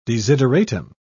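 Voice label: "desideratum", British English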